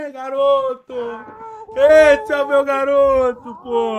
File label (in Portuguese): boa garoto